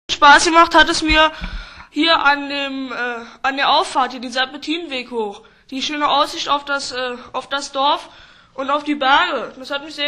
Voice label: Maerkisch, Berlinerisch; Mitteldeutsch

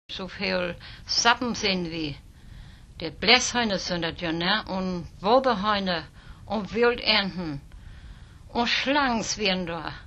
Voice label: Nordfriesisch

